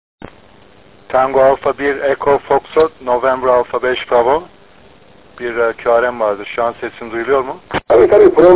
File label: QSO; KONFERANS ODASINA SATASMALAR